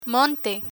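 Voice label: "monte", Spanish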